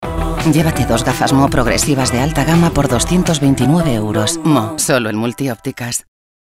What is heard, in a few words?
spanish spain female commercial